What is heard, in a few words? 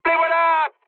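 enemy detected, mood high, French; War Thunder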